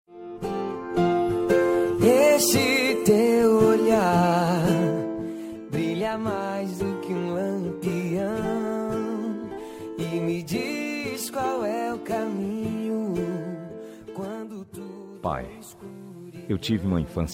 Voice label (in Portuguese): masc consideracao, voz masculina; Dia dos Pais